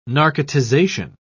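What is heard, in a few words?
"narcotization", British English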